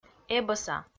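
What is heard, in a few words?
"эбӧса", Komi, female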